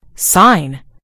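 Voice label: "sign", British English; gcompris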